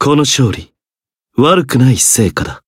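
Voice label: Voice win Japanese; zeviro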